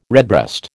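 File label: "redbreast", American English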